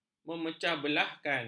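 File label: "memecahbelahkan", Malay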